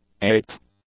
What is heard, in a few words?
"eighth", English; adjectives